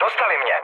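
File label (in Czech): Czech aircraft destroyed, mood medium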